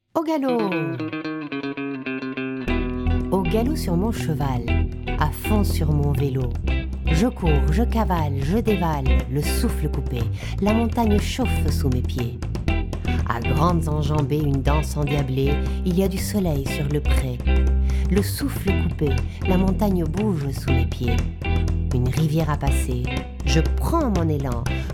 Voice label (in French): francais et arabe; Au galop